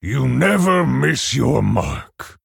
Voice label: Male Orc Ogre Well Played

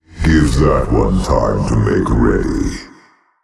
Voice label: Male Old God ERROR SUMMON SICKNESS

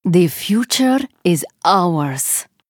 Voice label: Female Draenei Play

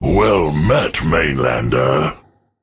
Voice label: Male Drust Greetings

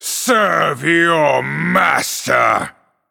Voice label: Male Night Elf

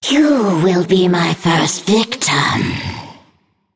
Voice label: Female Naga

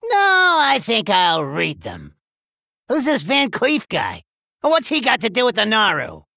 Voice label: Male Gnome

